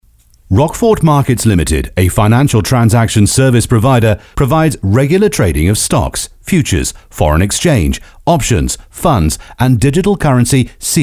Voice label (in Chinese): 宣传片配音稿; BBC知名主播; 英国广播公司电台、电视台和英式英语配音; 一个富有内涵、热情的英国人，英国广播公司的主播，有深度，有个性，如果需要，有很强的权威性